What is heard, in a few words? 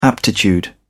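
"aptitude", British English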